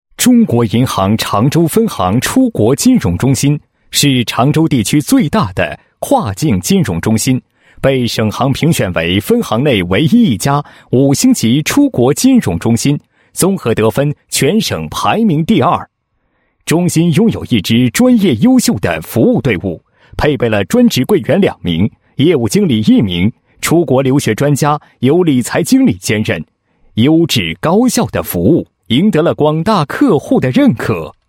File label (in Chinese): 男 企业 跨境金融中心简介; 中行跨境金融中心简介